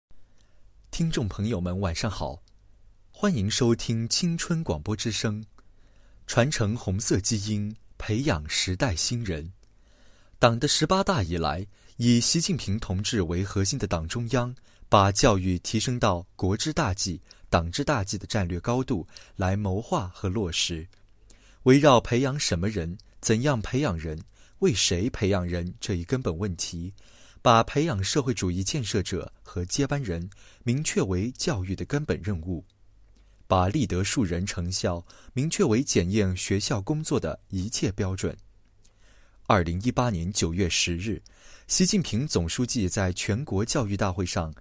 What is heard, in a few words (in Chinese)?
广播试音; 传承红色基因培养时代新人